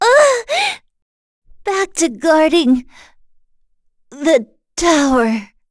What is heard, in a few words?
Vox Dead, en; kingsraid; voices heroes